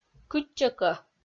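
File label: "кытчӧкӧ", Komi-Zyrian, female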